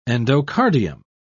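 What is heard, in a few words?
"endocardium", British English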